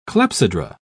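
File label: "clepsydra", British English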